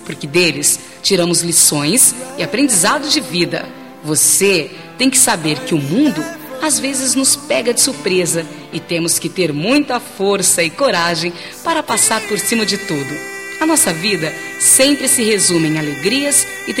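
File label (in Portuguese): Aniversário de 15 Anos, female, Amiga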